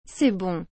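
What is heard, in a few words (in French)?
"Cest bon", French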